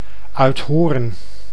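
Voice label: "Uithoorn", Dutch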